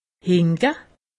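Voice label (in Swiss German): "hinke", Alsatian; medecine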